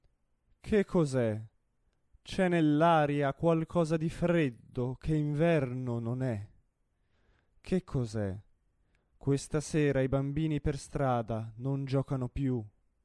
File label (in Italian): espressivo